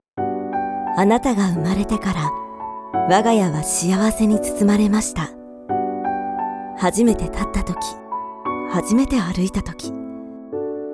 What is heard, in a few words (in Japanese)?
テレビCMナレーション（落ち着いた）; 美月ウェディングハウス